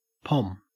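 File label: "pom", Australian English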